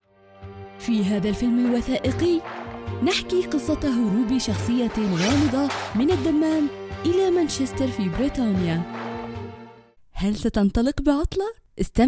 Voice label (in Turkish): Arapca Kadin Klasik Arapca; kadin sesler; klasik arapca seslendirme